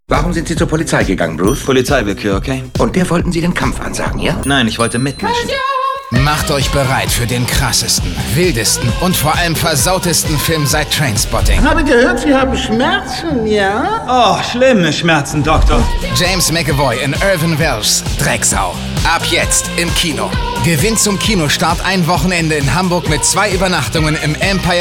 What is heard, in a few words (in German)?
Kinotrailer; Drecksau